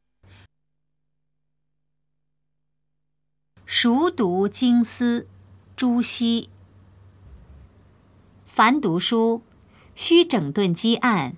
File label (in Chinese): putonghua; 熟讀精思; 普通話